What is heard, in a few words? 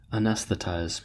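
"anesthetize", American English; pronunciations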